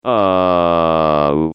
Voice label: taunt head pain; pyro

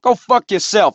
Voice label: pain; cj

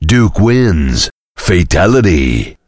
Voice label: heavy taunts; tf; duke

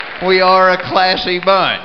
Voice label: classy

